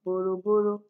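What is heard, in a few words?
"borrow borrow", Nigerian Pidgin, female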